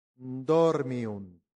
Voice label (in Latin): "dormiunt", latin; santillana